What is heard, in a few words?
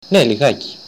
yes a little, Greek; Ναι, λιγάκι; Ne, ligáki